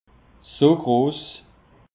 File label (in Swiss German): "so gross", alsace; peche